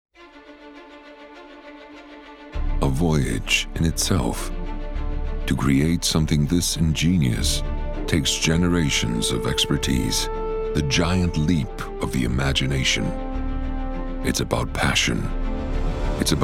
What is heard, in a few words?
Commercial; Landrover